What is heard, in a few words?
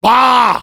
negative vocalization, fr; Demoman